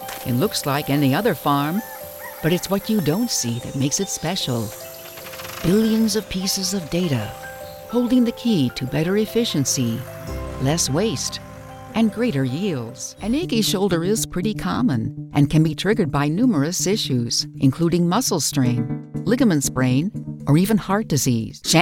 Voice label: Corporate Explainer with music